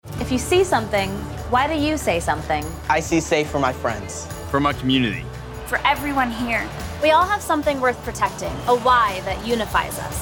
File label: generic english radio